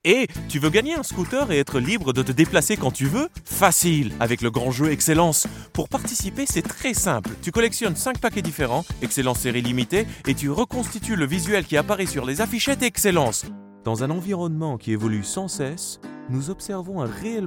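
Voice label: French Commercial; GoLocalise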